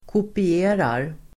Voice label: "kopierar", Swedish